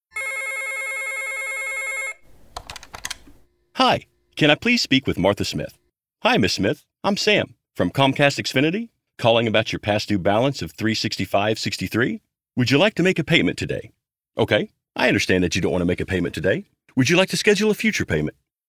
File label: Telephony Outbound IVR